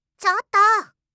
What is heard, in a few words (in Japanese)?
"chottoo", reaction, voice